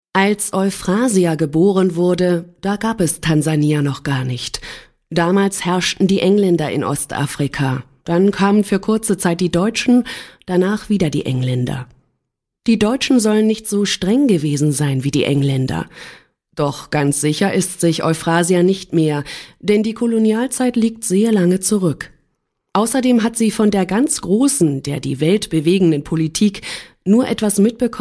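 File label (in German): Hörbuch; HelpAge; Was heisst hier alt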